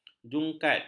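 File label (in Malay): "jungkat", Malay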